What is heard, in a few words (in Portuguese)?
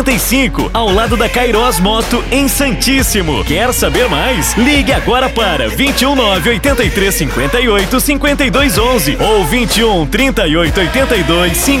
Spot Especial Auto Escola